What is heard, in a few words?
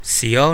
sorry, kadazan